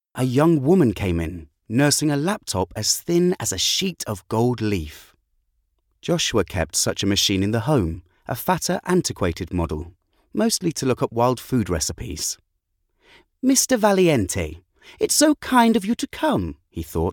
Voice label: Narration Voice